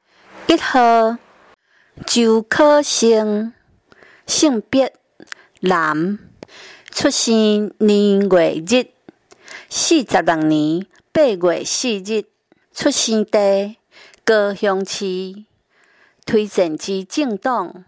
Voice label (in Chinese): 台語; 市長; 高雄市市長議員有聲選舉公報